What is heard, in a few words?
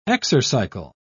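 "exercycle", British English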